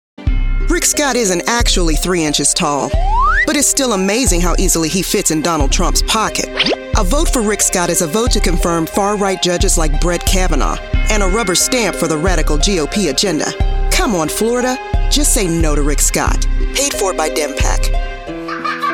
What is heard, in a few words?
Voiceover Political; Blue Wave Voiceover